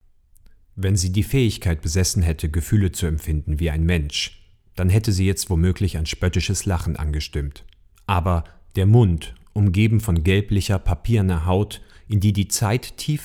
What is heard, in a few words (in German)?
Voice Sample SciFi gelesen; Perry Rhodan